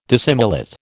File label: "dissimilate", American